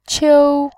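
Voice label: "qiu", Mandarin Chinese, first tone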